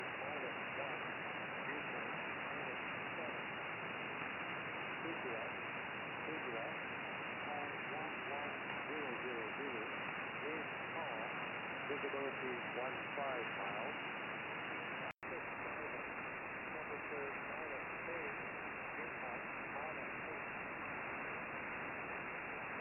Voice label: USB Gander Volmet; 10051khz; G33DDC; Afedri

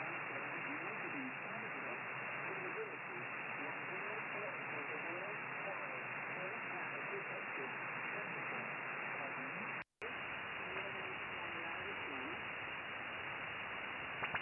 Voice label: Shannon Volmet

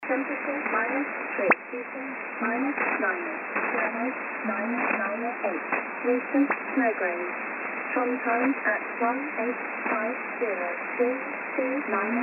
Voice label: 11253khz Volmet USB 2.5khz; RAF

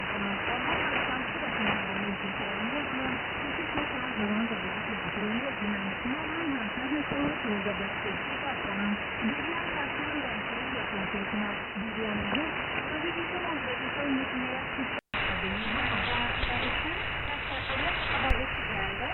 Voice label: 11850khz 6khz BW; RSPduo; G33DDC; Radio Liberty; SAM

